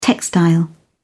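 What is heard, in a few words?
"textile", English, British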